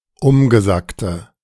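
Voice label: "umgesackte", German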